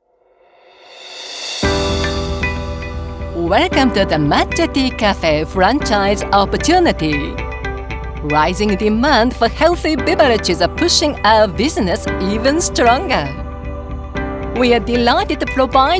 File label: Corporate in English